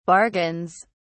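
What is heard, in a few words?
"bargains", British English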